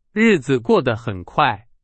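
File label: Chinese, example sentence; hsk2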